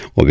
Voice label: Arabic